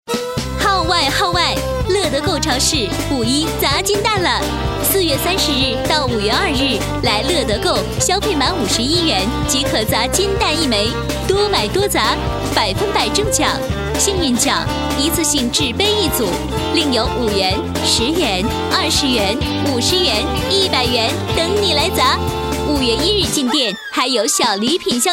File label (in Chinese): 超市促销